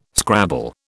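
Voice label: "scrabble", British English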